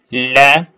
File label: short voyels arabic alphabet